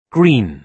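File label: "green", British English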